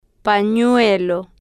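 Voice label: "panuelo", spanish, slow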